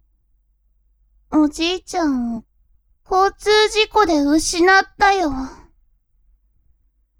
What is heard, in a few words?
woman